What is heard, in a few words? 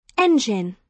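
"engine", British English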